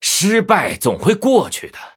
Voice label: Male Blood Elf Concede, zh

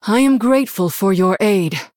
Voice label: Thanks, Female Human; en

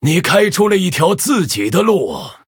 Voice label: Male Human Well Played, zh

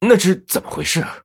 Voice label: Male Blood Elf Oops; zh

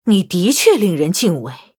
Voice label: Well Played, zh

